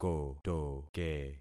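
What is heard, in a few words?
"kotoke", concatenated syllables; Affixing experiment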